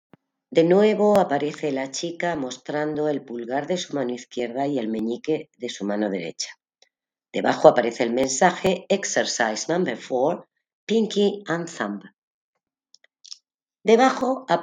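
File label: count to five in french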